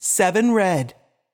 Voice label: voice Over, roulette; europeanroulette3